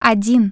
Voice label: "0", Russian Human Voice Tone